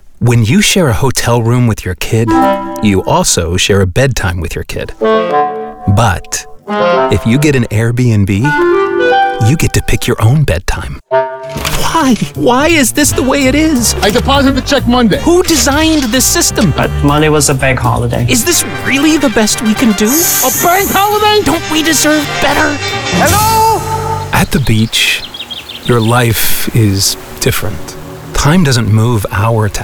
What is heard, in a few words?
Commercial